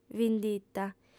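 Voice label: "vindita", Sardinian, female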